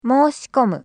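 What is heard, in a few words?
"moushikomu", Japanese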